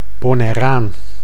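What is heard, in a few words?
"Bonairaan", Dutch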